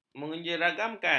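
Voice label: "menyeragamkan", Malay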